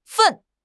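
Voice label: "fen4", Mandarin Chinese; HSK; HSK4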